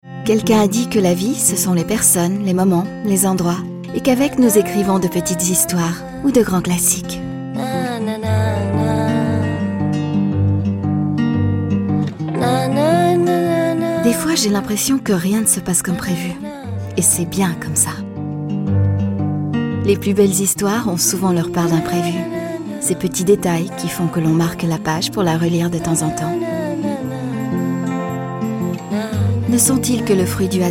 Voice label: female french hotel comercial; ideasonora

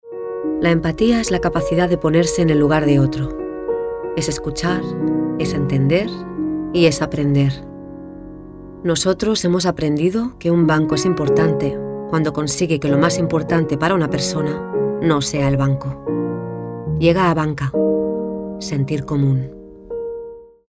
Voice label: female spanish bank comercial

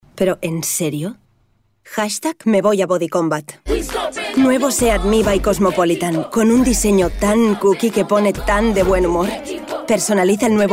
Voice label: female spanish car comercial; ideasonora

